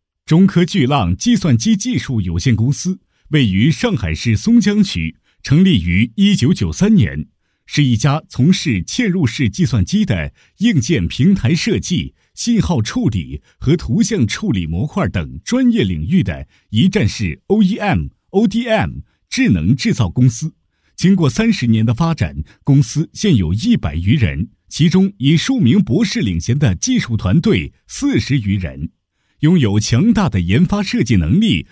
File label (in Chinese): 纪录片 普通话; 中科巨浪